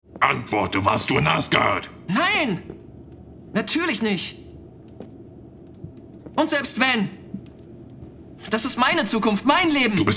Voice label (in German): Video Game Sync, Game, German